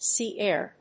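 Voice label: "sea-air", English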